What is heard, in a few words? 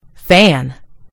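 "fan", American English; gcompris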